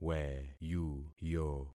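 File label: "weyuyo", concatenated syllables; Affixing experiment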